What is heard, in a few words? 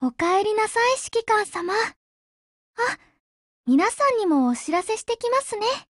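CAR HELLO Japanese; GF Alarm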